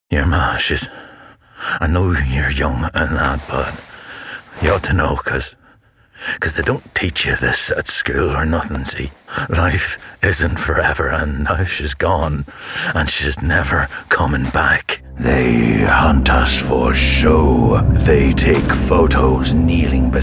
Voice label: Gaming Showreel; Gaming & Animation Voice Reels